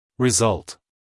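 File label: "result", American English male; everyone-can-use-english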